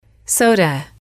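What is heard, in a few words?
"soda", English, female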